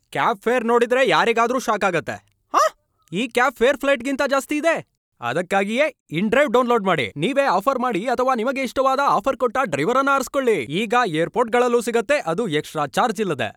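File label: Kannada, male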